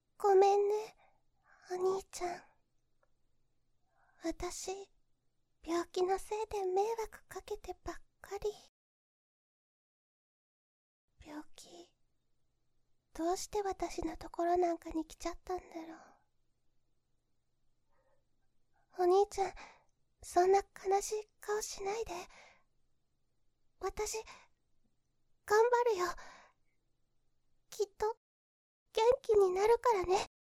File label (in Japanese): 妹